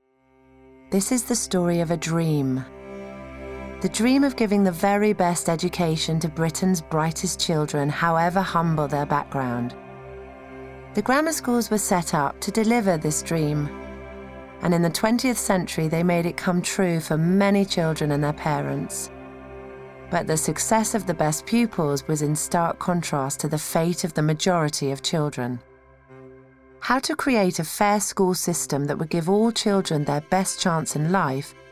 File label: Narration